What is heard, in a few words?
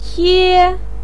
"tie1", pinyin